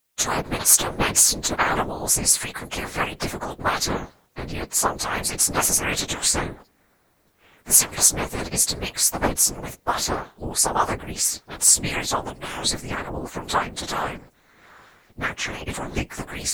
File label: female